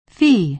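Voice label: "fee", American English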